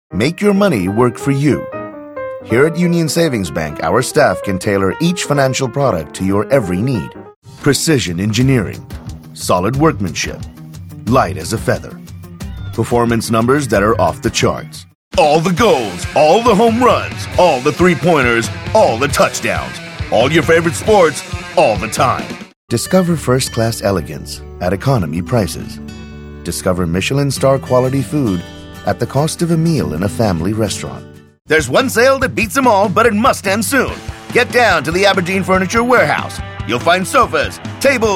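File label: American, TV commercial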